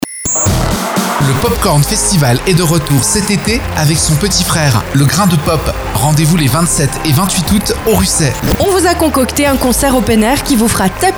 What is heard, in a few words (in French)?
spot; LE GRAIN DE POP